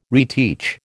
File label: "reteach", American